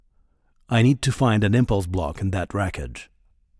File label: Voice Monolog; We Are the Dwarves